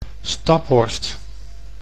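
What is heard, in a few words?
"Staphorst", Dutch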